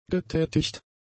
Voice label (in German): "getätigt", german; adjectives